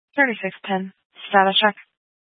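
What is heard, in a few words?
Police Dispatch, Scanner audio recording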